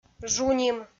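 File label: "жуним", Komi, female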